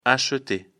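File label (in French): "acheter", French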